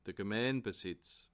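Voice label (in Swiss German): "gemeinbsitz", Bas Rhin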